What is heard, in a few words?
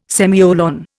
"semicolon", Indian